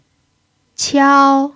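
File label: "qiao" first tone, chinese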